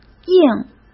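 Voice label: "硬", Mandarin Chinese; chinese-flashcards; hsk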